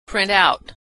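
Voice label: "print out" verb, American English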